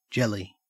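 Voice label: "jelly", Australian English; pronunciations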